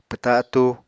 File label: "pwta atúu", apatani